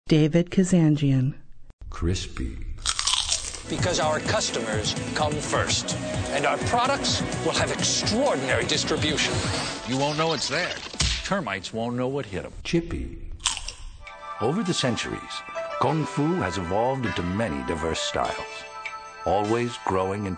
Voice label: male voice; voice talent; television and radio commercials, industrials, cd-roms, cartoon